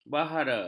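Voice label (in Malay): "bahara", Malay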